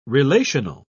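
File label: "relational", British English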